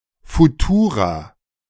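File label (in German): "Futura", German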